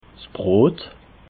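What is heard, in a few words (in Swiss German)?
"brot", Alsatian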